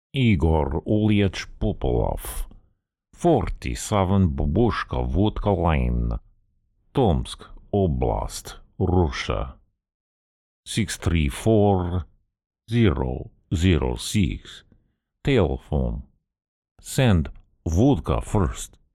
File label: Russian Accent